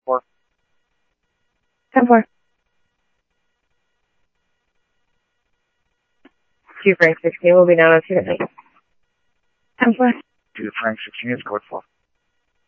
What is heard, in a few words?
PD Police, scanner audio